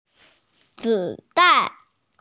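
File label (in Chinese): "zi3 dan4" Mandarin Chinese, female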